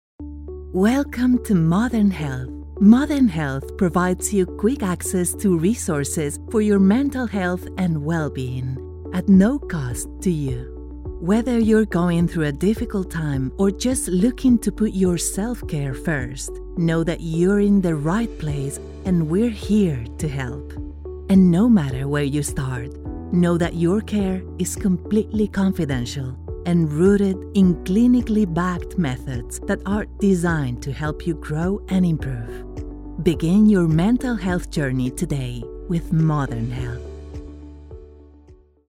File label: English warm, commercial voiceover